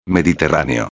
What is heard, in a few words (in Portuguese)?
"mediterraneo", espanhol